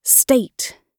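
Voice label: "state", British English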